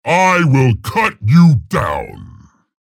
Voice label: chatter positive; scalebearer; frag